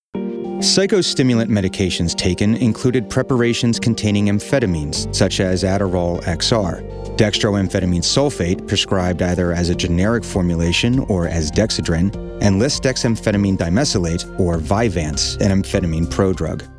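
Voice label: Narration Pharmaceutical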